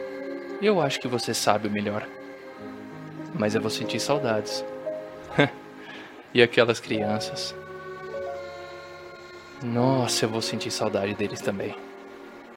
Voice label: portuguese brazilian animation

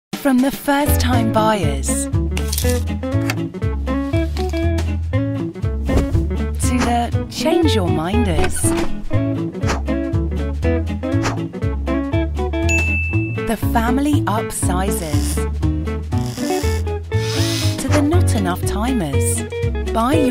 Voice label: english british promos

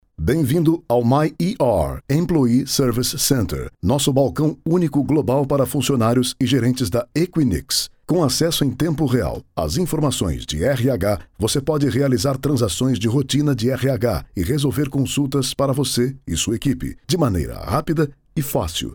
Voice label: portuguese brazilian political ads

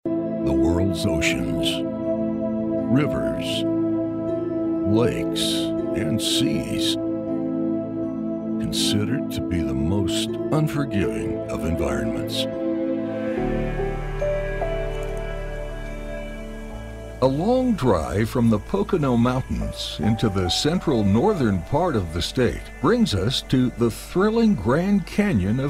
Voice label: english american documentaries

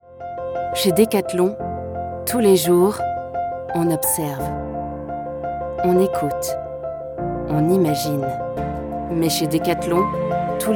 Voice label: french

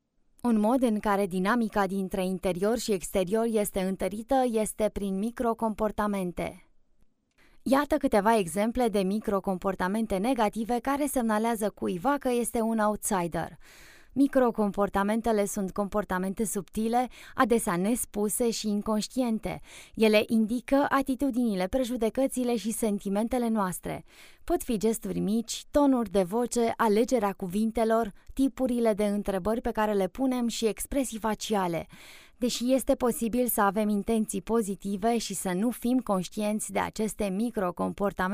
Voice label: romanian e-learning

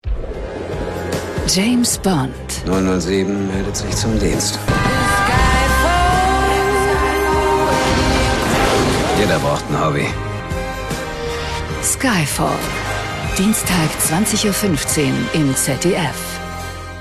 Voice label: German movie trailers

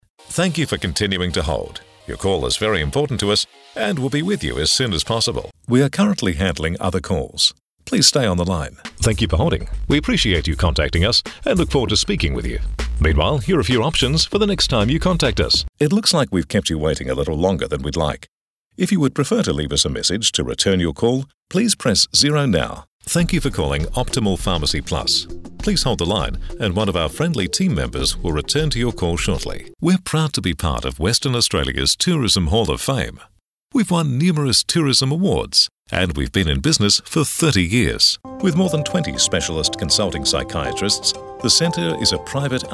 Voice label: english australian ivr

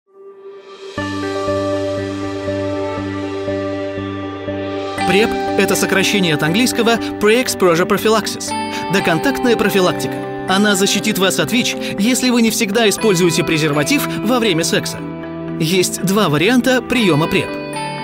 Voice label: russian documentaries

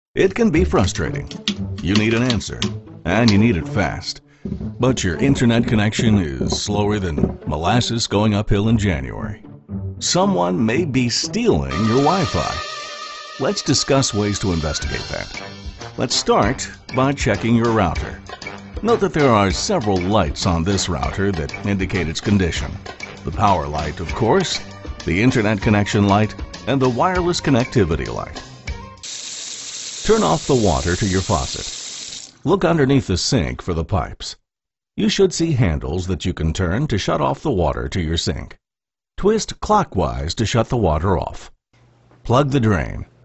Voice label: english american e-learning; professioneller amerikanischer Synchronsprecher

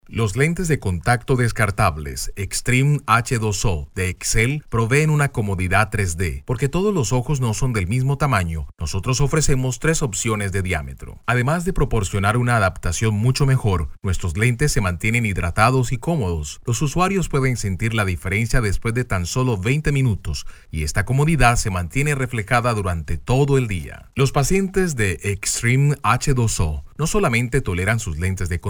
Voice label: spanish latin american podcasts

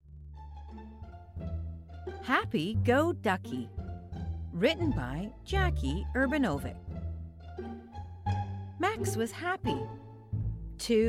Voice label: English canadian narration

